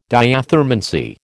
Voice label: "diathermancy", American